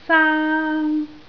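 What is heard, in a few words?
"saang1", Cantonese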